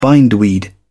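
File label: "bindweed", English, uk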